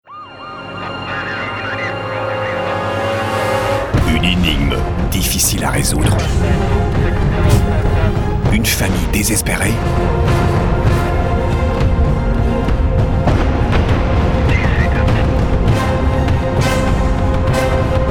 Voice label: SPOT; TOSHIBA RECUPERATION DONNEES